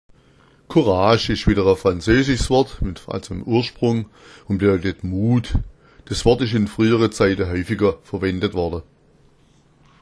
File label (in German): "kurasch", schwaebisch